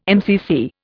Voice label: "MCC", Indian